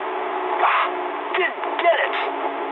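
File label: bomb failure mood medium, speech English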